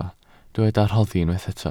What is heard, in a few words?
"rhoddi", Welsh